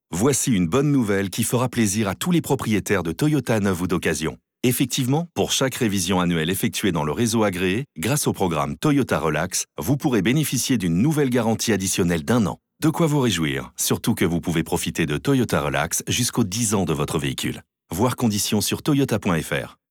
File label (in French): SPOT RADIO; TOYOTA; VOICI